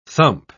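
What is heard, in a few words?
"thump", English word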